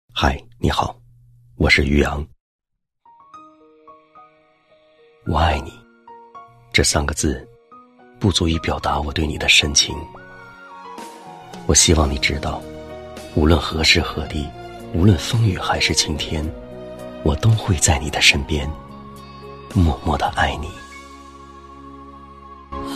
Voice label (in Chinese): 声音大气开阔，适配城市宣传片、旅游广告，风格恢弘有感染力, 内心独白; 持证配音员，一甲水平，声音大气开阔，适配城市宣传片、旅游广告，风格恢弘有感染力